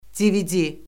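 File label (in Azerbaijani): "dvd", Azerbaijani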